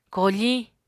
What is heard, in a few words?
"koofiñ", Apatani